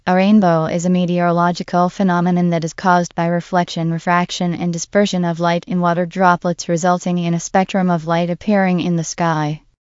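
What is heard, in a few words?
speaker, American English; piper-voices; en US; arctic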